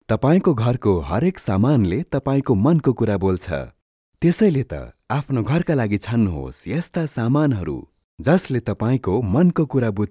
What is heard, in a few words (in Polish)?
Nepalski